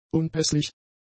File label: "unpässlich", German; adjectives